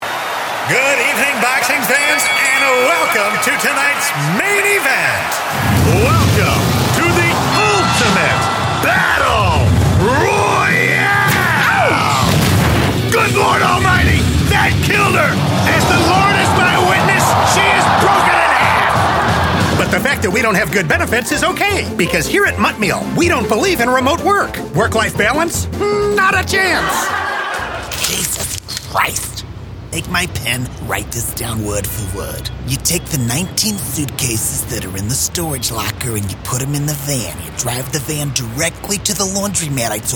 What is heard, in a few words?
Animation Voice